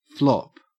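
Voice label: "flop", Australian English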